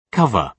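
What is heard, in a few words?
"cover", British English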